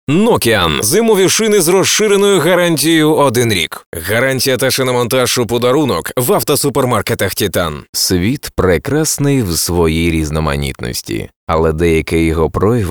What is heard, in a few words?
UKR; audioreklama